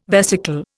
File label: "vesicle", Indian